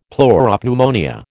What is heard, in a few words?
"pleuropneumonia", American